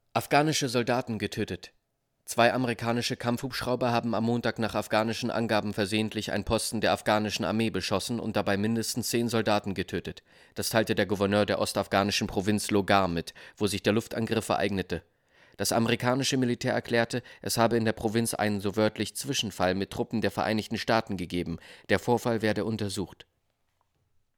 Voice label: Nachrichten; Meldung

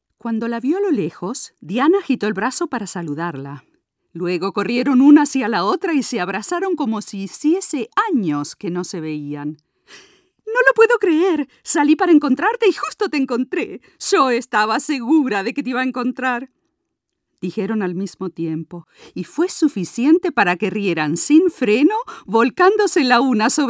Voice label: Hoerbuch; Lateinamerikanisch (neutral)

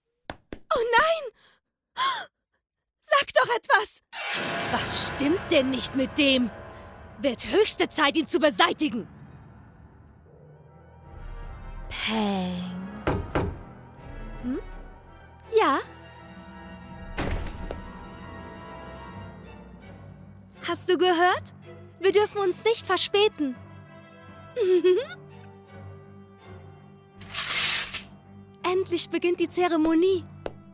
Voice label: Anime

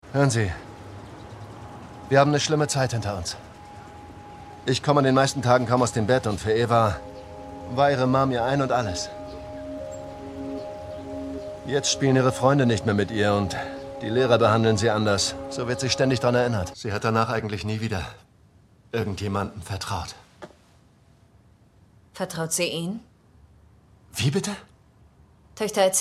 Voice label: Synchron Vater Opfer ruhig warm intim traurig verzweifelt; Frequency

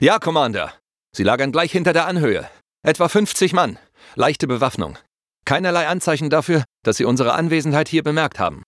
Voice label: DE Soldat gehorsam

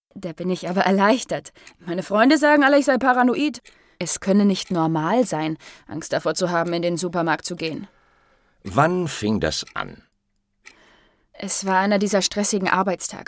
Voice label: Hoerspiel; Panik im Supermarkt

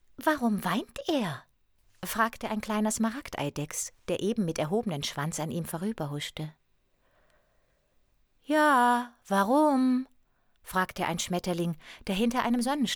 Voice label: Sprachdemo Nachtigall und Rose; Oscar Wilde

